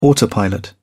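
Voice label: "autopilot", English, British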